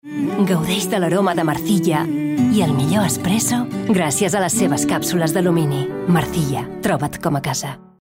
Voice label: female catalan coffee comercial; ideasonora

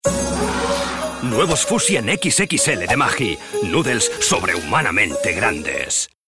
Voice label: male spanish food comercial; ideasonora

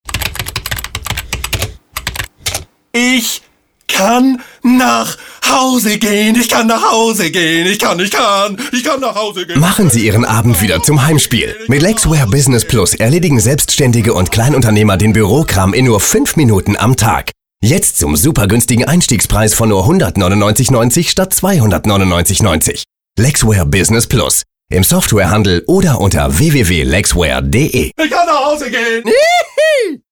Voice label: male german commercial; ideasonora

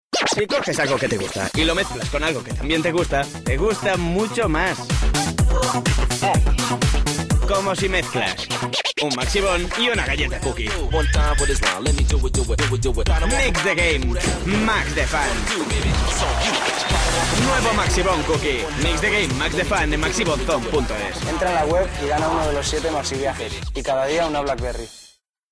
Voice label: male spanish icecream comercial; ideasonora

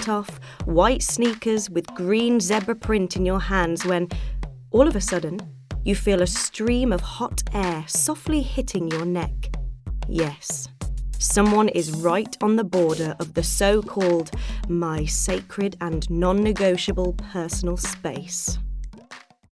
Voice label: female british english outlet comercial; ideasonora

